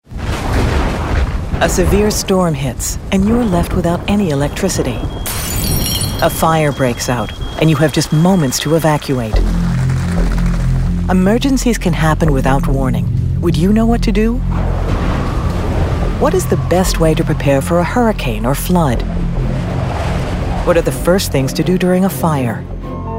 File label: Emergency PSA